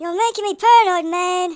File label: take cover, speech, Hippy